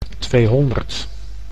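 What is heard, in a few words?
"tweehonderd", Dutch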